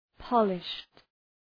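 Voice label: "polished", English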